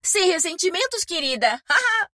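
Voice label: Portuguese; 回声（守望先锋2）; 终极技能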